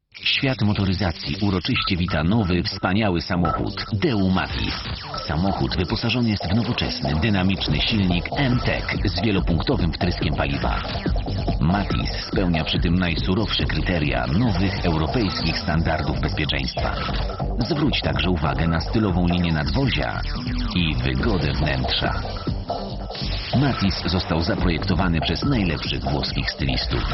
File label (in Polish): Reklama; Daewoo Matiz - Ale Jazda!